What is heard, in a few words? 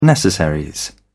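"necessaries", British English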